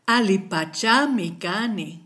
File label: fine, kichwa